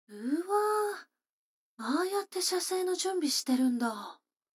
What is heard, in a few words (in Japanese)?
女の子台詞, ASMR